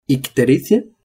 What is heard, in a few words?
"ictericia", spanish